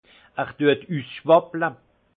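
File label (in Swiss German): "ussschwapple", alsace Haut-Rhin; vin; il déborde d’alcool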